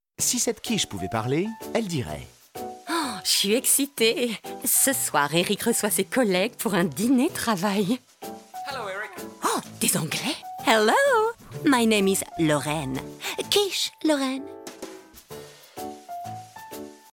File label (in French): pub comedie; adagio